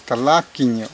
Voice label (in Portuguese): "branco", kalapalo